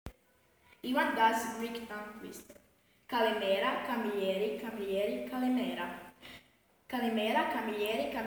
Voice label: Greek